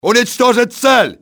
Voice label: eliminate target, russian soldier; XBOX